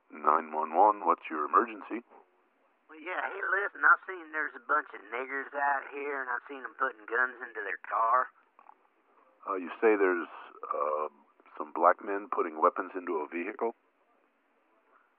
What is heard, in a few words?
911 Call; Sweetwater Texas